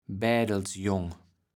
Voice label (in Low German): "Bädelsjong", kaevels platt